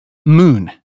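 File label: "moon", British English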